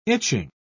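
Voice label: "itching", British English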